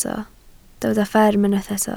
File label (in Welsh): "fferm", Welsh